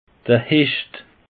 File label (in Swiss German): "hecht", alsace; peche